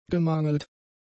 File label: "gemangelt", German; adjectives